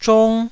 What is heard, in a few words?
"zhong1", Taiwanese